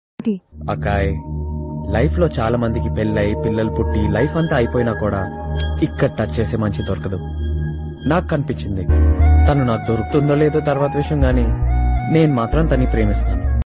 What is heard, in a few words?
love dialogue telugu; rarandoi veduka chuddam